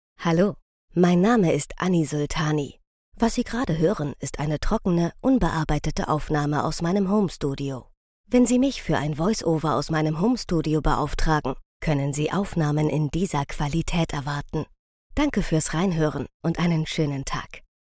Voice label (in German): Studio Demo DE